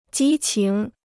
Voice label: "激情", Chinese; jī qíng; passion; fervor

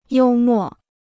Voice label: "幽默", Chinese

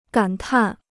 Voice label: "感叹", Chinese

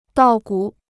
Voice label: "稻谷", Chinese

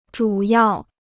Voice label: "主要", Chinese

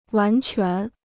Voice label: "完全", Chinese

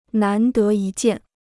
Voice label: "难得一见", Chinese

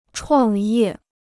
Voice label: "创业", Chinese

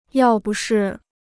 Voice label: "要不是", Chinese

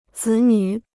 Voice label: "子女", Chinese

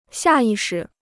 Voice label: "下意识", Chinese

